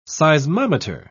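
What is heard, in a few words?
"seismometer", British English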